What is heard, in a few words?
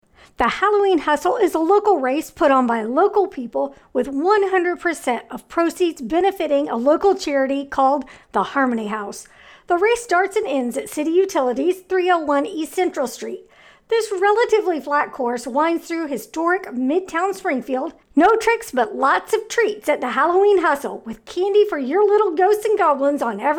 Promo; Halloween Hustle 2021